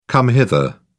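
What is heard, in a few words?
"come hither", British English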